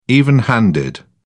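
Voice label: "even handed", British English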